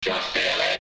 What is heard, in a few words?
Just Feel It Robot